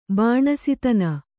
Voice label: "ಬಾಣಸಿತನ", Kannada

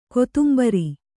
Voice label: "ಕೊತ್ತುಂಬುರಿ", Kannada

